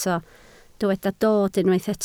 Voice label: "dod", Welsh